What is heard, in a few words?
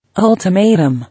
"Ultimatum", English